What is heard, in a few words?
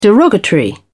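"derogatory", British English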